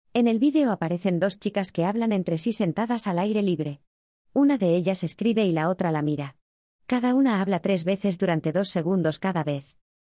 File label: DUBBING